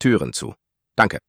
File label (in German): Tueren zu danke Lock Chime; Tesla